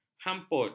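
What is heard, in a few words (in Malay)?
"hamput", Malay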